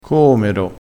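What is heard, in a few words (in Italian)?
"coomero", dialettale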